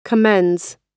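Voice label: "commends", US English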